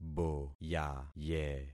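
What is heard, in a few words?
"boyaye", concatenated syllables; Affixing experiment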